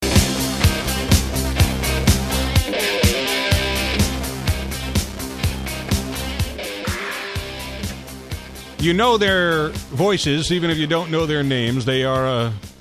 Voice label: voiceover